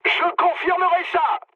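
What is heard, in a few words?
French player killed enemy mood high; War Thunder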